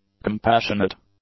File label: "compassionate", English; adjectives